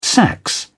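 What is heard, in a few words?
"sax", English, British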